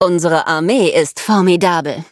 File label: victory, de; cookie run